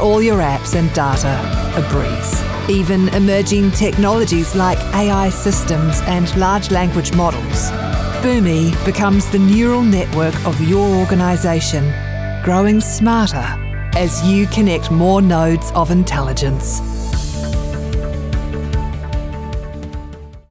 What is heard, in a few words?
english new zealand corporate videos